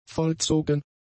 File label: "vollzogen", German; adjectives